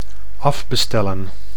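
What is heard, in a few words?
"afbestellen", Dutch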